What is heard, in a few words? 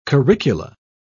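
"curricula", British English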